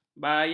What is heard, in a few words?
"bayan", ms